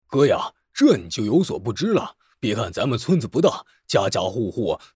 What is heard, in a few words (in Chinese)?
角色男胖子